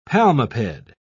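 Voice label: "palmiped", British English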